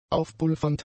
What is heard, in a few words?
"aufpulvernd", German; adjectives